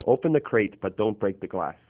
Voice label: Male G729